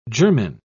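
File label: "germen", British English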